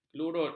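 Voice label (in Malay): "kelurut", Malay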